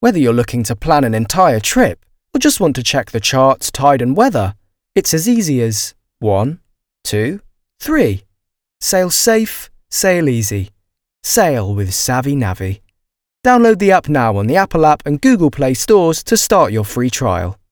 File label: Commercial